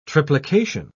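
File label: "triplication", British English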